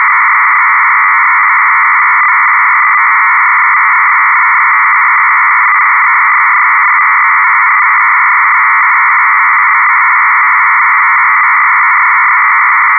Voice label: test message no noise